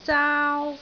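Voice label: "zaau1", Cantonese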